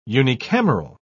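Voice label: "unicameral", British English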